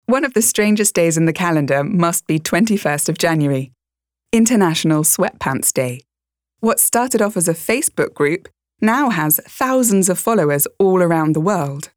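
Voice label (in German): British English, Kommentar; International Sweatpants Day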